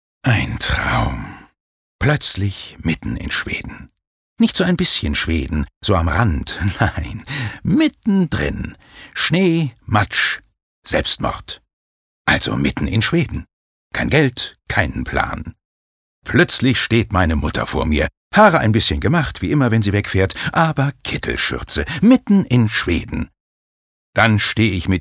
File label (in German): Schauspiel Dynamisch